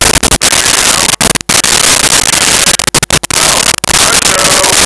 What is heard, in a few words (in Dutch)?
computer uit, spraak